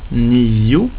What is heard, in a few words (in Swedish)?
"nio", swedish; getal